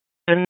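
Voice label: Raleigh Police Southeast District Dispatch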